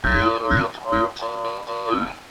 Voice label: ring mod; Digital Audio FX